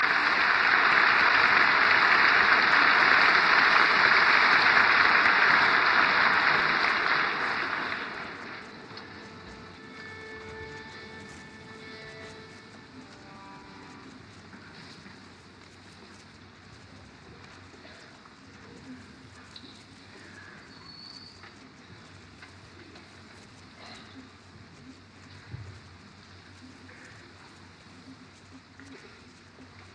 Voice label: Announcement